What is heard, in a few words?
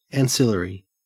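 "ancillary", Australian English; pronunciations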